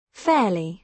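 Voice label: "fairly", British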